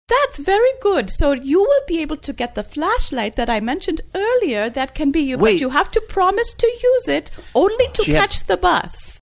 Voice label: speaking as bus driver with scandinavian accent

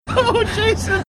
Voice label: saying oh high pitched

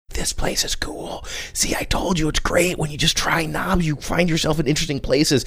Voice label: quietly saying this is place is cool

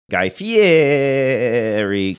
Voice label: even more exaggerated manner; guy fieri

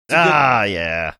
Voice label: saying ah yeah gruff

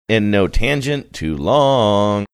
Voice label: saying and not a tangent too long sing songy

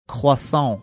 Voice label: saying croissant overly done french accent

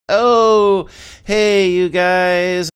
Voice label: drawn out oh hey you guys

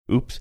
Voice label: quiet oops

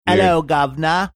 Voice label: 'ello guvner cockney

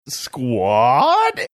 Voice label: saying squad funny

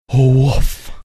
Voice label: saying woof exaggerated

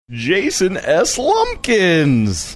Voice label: funny; jason slumpkins

